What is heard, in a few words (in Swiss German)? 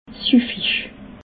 "suffig", alsace